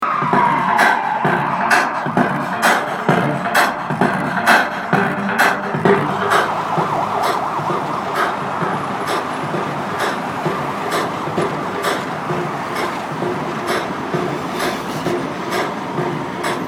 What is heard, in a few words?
voice memo; iphone